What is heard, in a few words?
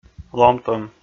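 "ломтӧм", Komi, male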